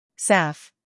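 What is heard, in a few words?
"humor", British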